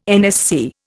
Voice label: "NSC", Indian

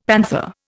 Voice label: "panther", Indian; Dictionary

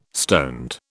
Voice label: "stoned", British

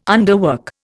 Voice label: "underwork", Indian

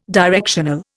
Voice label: "directional", Indian

